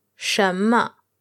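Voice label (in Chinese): "shenme", Mandarin Chinese, female; 什么 (shénme) “what?”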